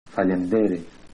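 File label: "thanks", Albanian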